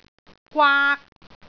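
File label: "gwaak3", Cantonese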